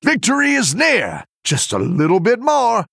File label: Male Human First Place